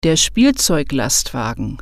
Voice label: "truck", German